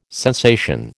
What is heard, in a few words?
"sensation", American